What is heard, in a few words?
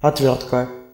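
screwdriver description Russian; tuxpaint-stamps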